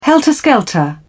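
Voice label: "helter skelter", British English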